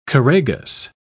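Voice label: "choragus", British English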